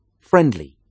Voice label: "friendly"